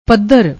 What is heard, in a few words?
"padhar", Punjabi